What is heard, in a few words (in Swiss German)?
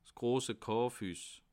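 "kaufhuss", Bas Rhin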